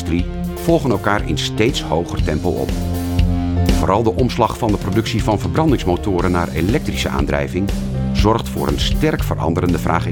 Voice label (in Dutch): Car Supplies met muziek